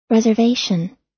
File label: "reservation", us